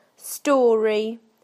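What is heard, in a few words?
"story", British English; PictureDictionary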